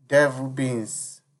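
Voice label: "devilbeans", Nigerian Pidgin, male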